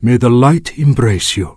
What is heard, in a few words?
Draenei Male Greeting